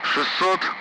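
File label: Speech, Russian, Numbers, 60; Allied Flight; DCS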